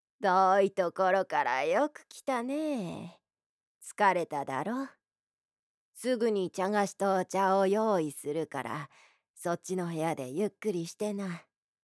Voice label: grandma